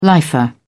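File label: "lifer", British English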